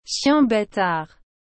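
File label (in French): "chien bâtard", French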